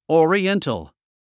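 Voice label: "oriental", English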